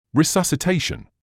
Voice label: "resuscitation", English